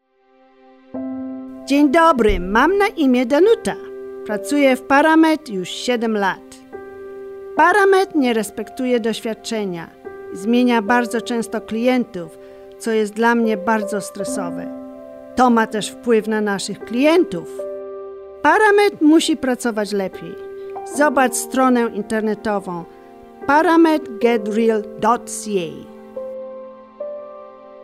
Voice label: Polish; Paramed